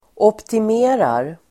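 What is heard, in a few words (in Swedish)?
"optimerar", Swedish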